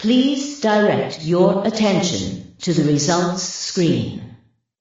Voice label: announcer results screen